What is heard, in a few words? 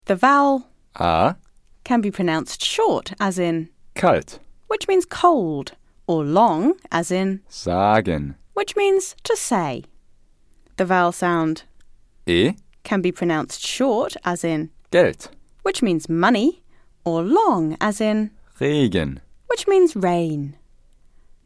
German Vowels